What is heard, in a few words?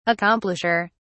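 "accomplisher", British English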